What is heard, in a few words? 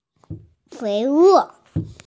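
"fei wo" Chinese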